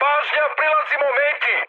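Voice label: Serbian near waypoint mood high; speech; War Thunder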